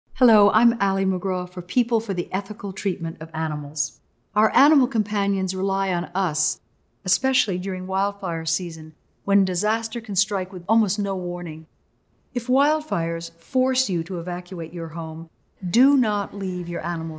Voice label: radio psa; ali macgraw; wildfire; peta